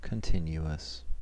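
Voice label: "continuous", US English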